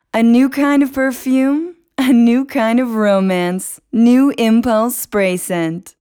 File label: Bajada, Ingles (USA)